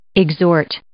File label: "exhort", English